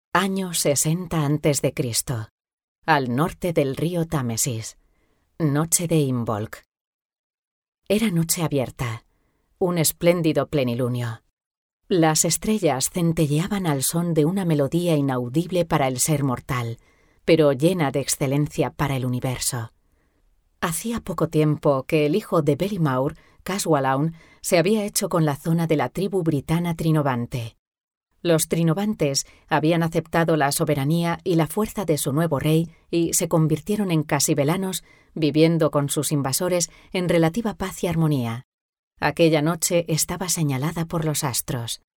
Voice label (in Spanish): spanish audiobooks